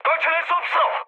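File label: Korean aircraft damaged mood medium; speech; War Thunder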